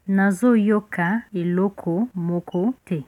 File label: no understand, lingala